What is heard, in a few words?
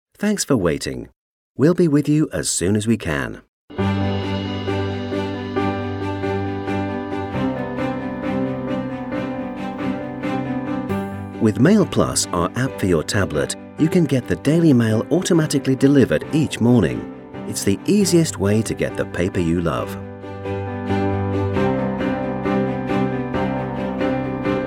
British Voiceover Telephone Queue Promo; DAILY MAIL